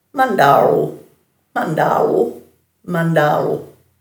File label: "mandarlu", Dhurga